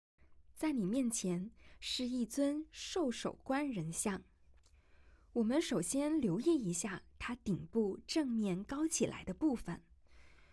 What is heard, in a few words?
Mandarin, audio-guide; gazing at sanxingdui